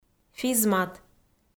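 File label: "физмат", Komi, female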